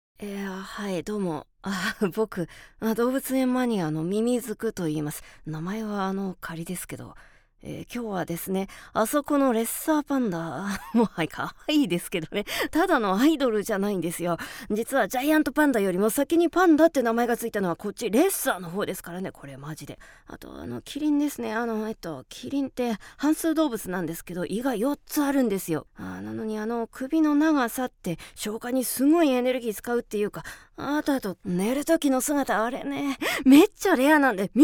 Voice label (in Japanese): クセ強オタクキャラ セリフ